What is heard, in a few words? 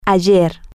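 yesterday, Spanish, female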